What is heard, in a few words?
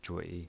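"zhui" Mandarin Chinese pinyin voice, third tone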